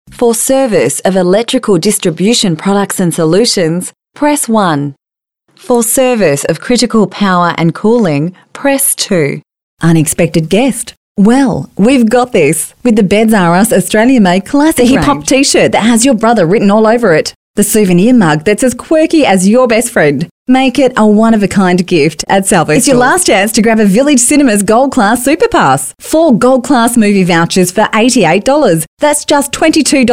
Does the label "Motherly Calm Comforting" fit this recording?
No